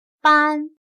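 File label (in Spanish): "搬", chino mandarin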